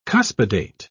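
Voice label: "cuspidate", British English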